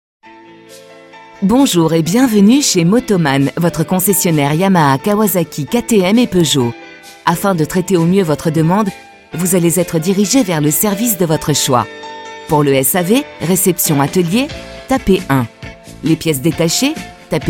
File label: french ivr